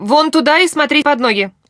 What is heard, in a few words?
back off, characters voice human woman threat; Stalker Anomaly